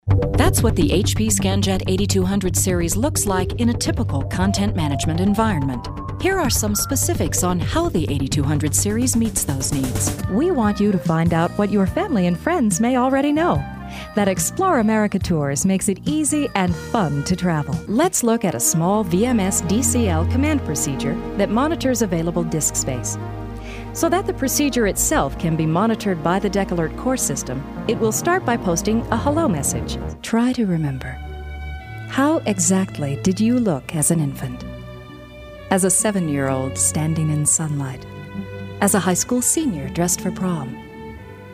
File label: Narration